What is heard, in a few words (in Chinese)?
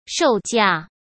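"售价", Mandarin Chinese; hsk; chinese flashcards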